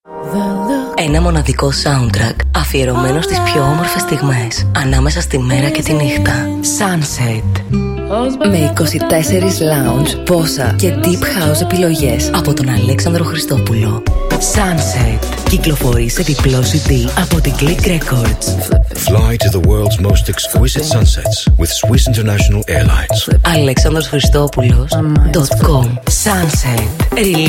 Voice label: Radio Spot; Sunset Sunrise 11